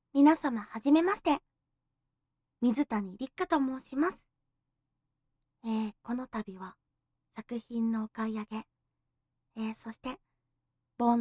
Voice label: フリートーク, ASMR; 耳舐め&吐息ぐっぽり10時間♪

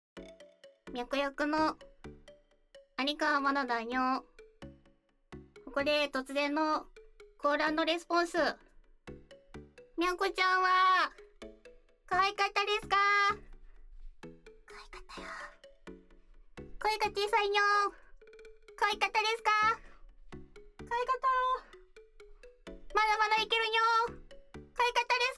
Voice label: フリートーク; 精液決済にしますか?

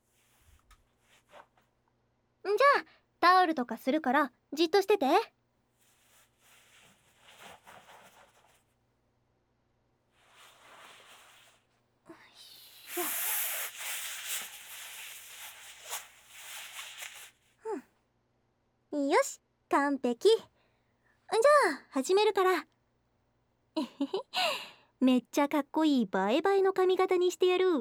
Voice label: 楽しい会話と散髪; ギャル; 高級ギャル美容院の泡々特別サービス

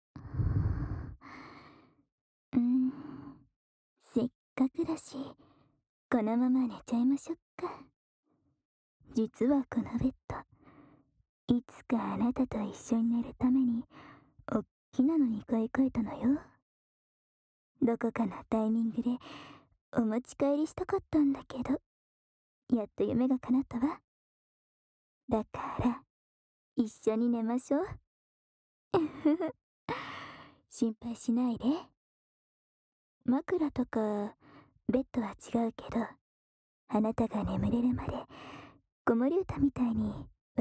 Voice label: 耳かきで落としてあげる, ASMR; 病娇妈妈活; 和病娇大姐姐一起的心跳加速陪睡强制同居生活

